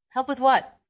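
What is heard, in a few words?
VoiceActing Spanish, female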